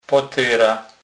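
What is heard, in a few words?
"potera", Polish, Kurpie dialect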